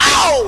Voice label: ow male